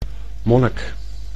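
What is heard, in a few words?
"monnik", Dutch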